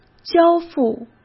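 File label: "交付", Mandarin Chinese; chinese-flashcards; hsk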